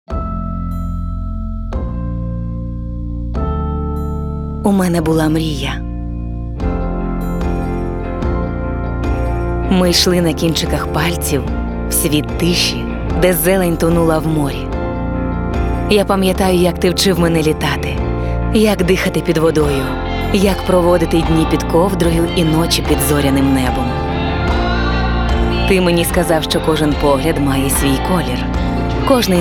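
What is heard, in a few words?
Ukrainian, female, corporate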